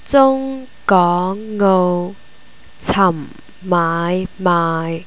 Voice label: 6 tones, cantonese